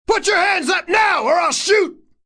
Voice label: Male yelling 'Put your hands up now or I'll shoot' with police, law enforcement; VoiceBox